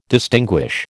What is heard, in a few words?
"distinguish", American